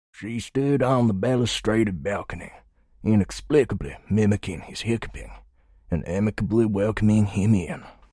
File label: American Dixie Older